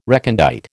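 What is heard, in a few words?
"recondite", American English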